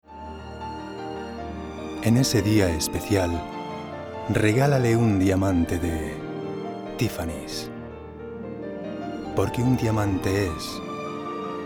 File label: Spanish Demo; Demo Reel